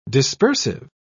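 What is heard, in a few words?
"dispersive", English, British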